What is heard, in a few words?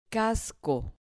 "casco", Spanish